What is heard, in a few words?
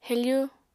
"hefu", Apatani